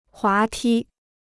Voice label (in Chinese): "滑梯", Chinese